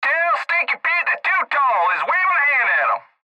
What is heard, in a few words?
Cb Trucker Radio; Cb Radio Male Tell Stinky Pete That Too Tall Is Waving A Hand At Him; Radio Voice; Blastwave